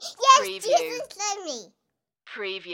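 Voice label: Kid Says Jesus Love Me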